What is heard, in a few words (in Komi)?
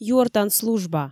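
юӧртан служба, Komi, female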